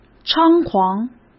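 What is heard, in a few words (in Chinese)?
"猖狂", Mandarin Chinese; hsk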